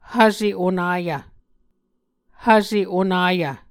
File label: "hvse onayv", Muscogee